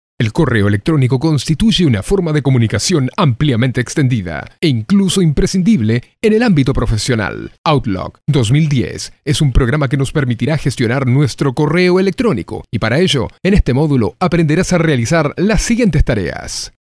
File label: locutor argentina argentinian voiceover